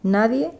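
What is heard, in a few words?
"nadie", Spanish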